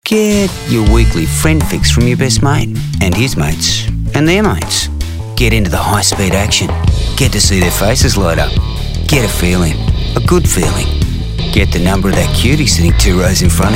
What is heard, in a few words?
australian